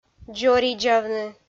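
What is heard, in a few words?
"дзоридзавны", Komi, female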